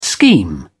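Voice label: "scheme", British English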